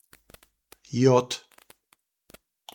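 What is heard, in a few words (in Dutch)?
Jod, kerkraads dialect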